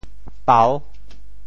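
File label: "pau6", Teochew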